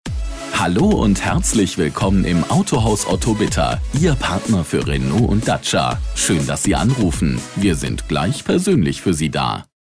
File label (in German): Ansage vor Melden Stereo; Telefonansage Autohaus – KFZ – Werkstatt – Gebrauchtwagen Händler